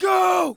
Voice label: Human Male Yells And Cheers Go

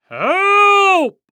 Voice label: Cartoon Yell Male "Help"

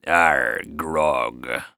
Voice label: Human Pirate Say Grog

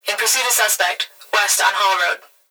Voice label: Human Female Voice Police Radio; Emergency

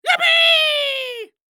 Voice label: Cartoon Loud Voice "Yippee"

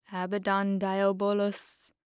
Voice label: Horror Scary Human Female Speaking Spell

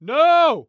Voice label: "No", Human Male

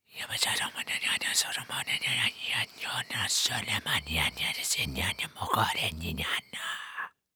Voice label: Horror Scary Demon Speak Quiet

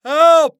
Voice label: Human Male Yell Help Worried

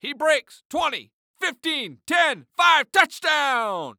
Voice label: Sports Human Voice Male Football Announcer; He Breaks Twenty Fifteen Ten Five Touchdown

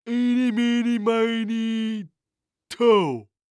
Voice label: Cartoon Voice Goofy; Eeni Minny Mini Toe; AdobeCC

